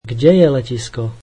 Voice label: slovak Where is the airport

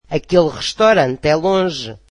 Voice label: portuguese that restaurant is far

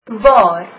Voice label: where, afrikaans

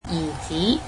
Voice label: "son", Cantonese

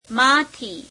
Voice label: gujarati from; માંથી [meāmetheī]; Prepositions

